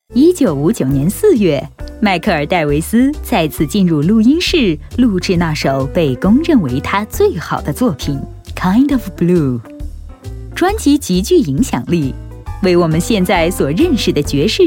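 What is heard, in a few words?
Mandarin, narration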